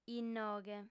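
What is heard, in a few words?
"innoghe", Sardinian, female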